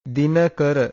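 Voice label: "dinakara", Pali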